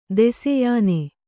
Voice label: "ದೆಸೆಯಾನೆ", Kannada